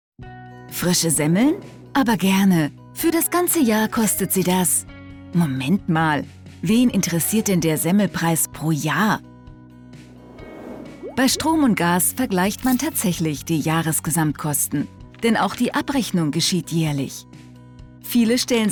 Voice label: Erklarfilm natürlich; E-Control

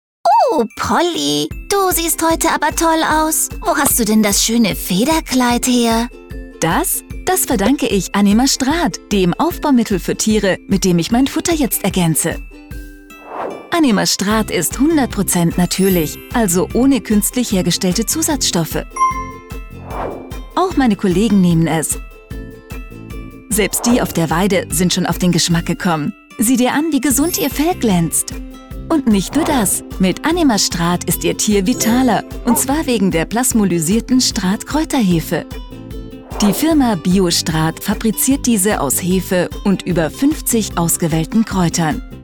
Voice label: Erklarfilm; Anima Strath Trick

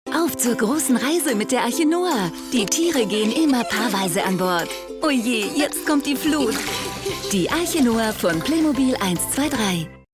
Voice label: Werbung TV; Playmobil

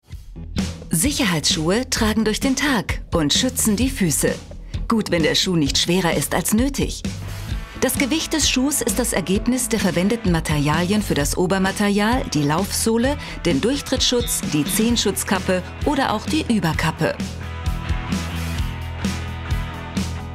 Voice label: Produktfilm kraftvoll; Elten

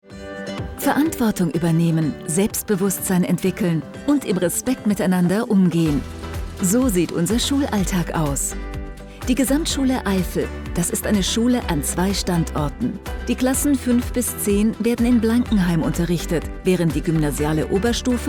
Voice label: Imagefilm informativ; Gesamtschule Eifel

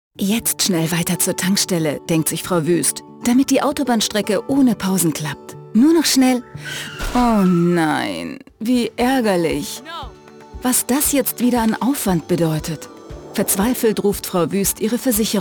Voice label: Erklarfilm; 3C Deutschland

